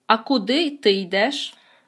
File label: dialogue, Ukrainian